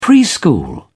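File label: "preschool", British English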